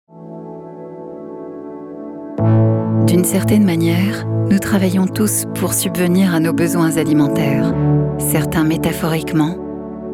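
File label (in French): film institutionnel cereal, voix off femme; scania; cereales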